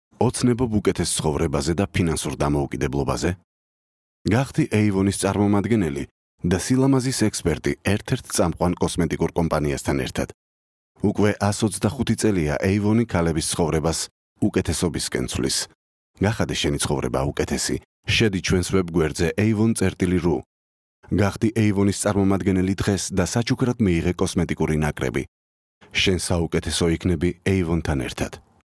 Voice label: Georgian

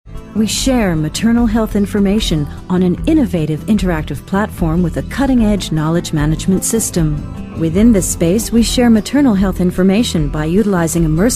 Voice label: Narrative

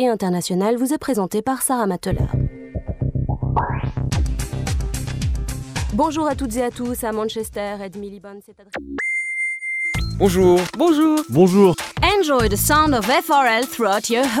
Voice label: French

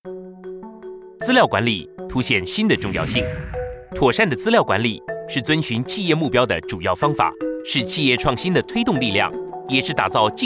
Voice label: Chinese Mandarin Corporate

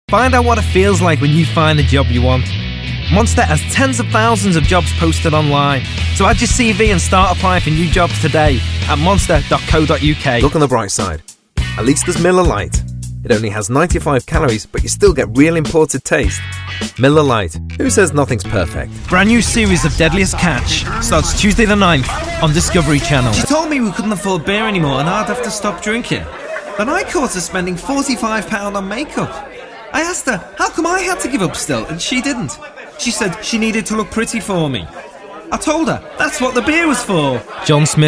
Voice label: voicereel incl languages

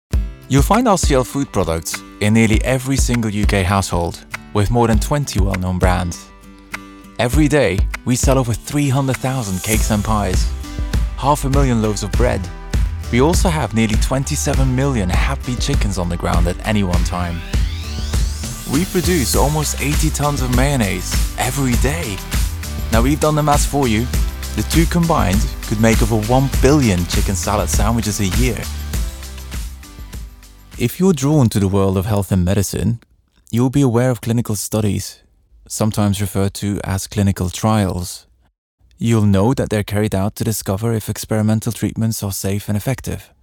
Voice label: Accented English

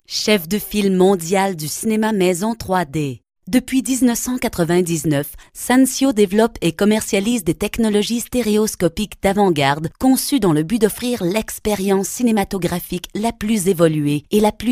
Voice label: French Narration

